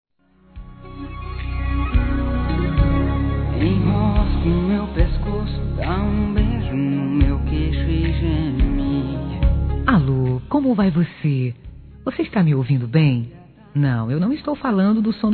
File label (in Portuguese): ROMANTICA F